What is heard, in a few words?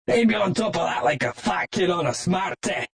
Fat Kid Smartie, Male; Attitude; VoiceBox